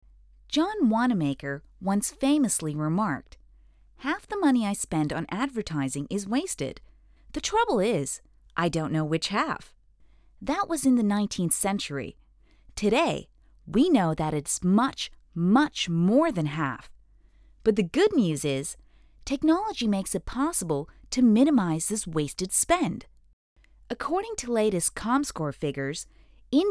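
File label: elearn english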